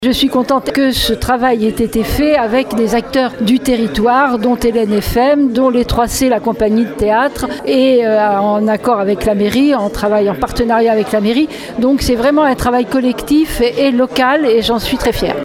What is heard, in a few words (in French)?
audioguide; desprez